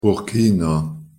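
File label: pronunciation Luxembourgish "burkiner"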